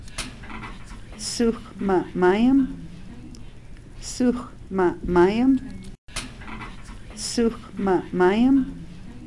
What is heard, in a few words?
səxʷmaʔmayam teacher, nsyilxcn words; additional nsyilxcn words for campus